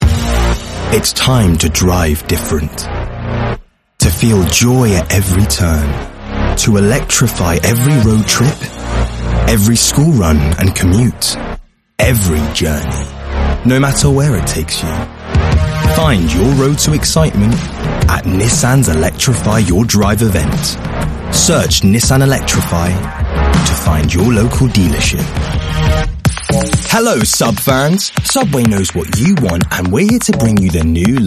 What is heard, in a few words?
Commercials